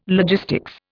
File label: "logistics", Indian